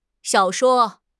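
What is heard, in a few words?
"xiao3 shuo1", Mandarin Chinese; HSK; HSK4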